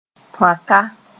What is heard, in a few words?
"tõaka", Mooré; link